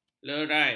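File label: "lerai", Malay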